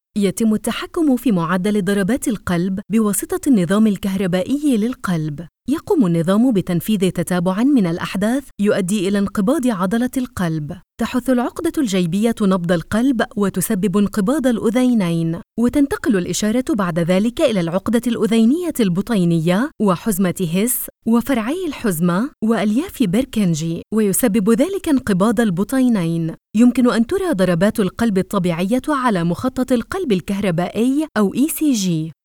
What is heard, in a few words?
Arabic F Corporate; voice over